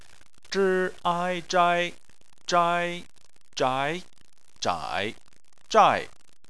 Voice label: "zhai", chinese

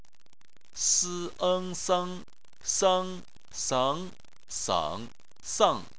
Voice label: "seng", chinese

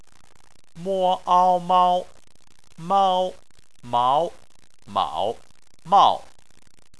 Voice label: "mao", chinese

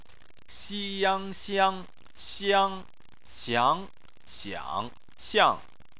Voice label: "xiang", chinese

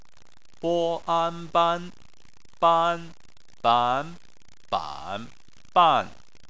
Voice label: "ban", Chinese

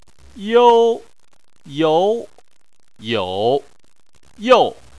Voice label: "you", chinese

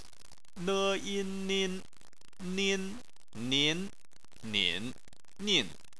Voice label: "nin", chinese

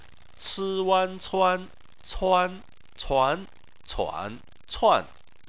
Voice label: "cuan", chinese

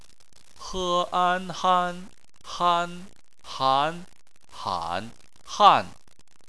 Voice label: "han", chinese

